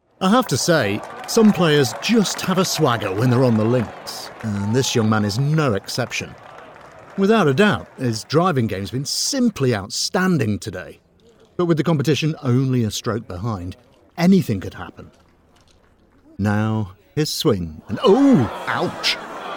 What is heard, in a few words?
voiceover narrative character golf comentary, British character voice over; character voices